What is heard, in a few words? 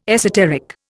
"esoteric", Indian